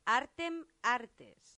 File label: "artem artes", latin; santillana